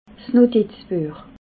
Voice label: "notizbuech", alsace

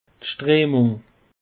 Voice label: "strehmung", alsace; peche

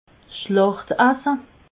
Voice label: "schlachtasse", alsace

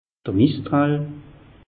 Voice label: "mistral", alsace; meteo

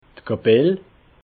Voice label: "kapell", alsace

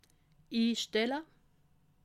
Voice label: "instelle", alsace

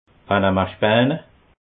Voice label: "panamaspane", alsace

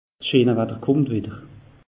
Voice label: "scheene watter", alsace; meteo

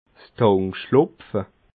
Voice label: "tankschlupfe", alsace

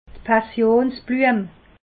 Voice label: "passionsbluem", alsace

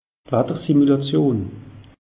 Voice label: "watter simulation", alsace; meteo

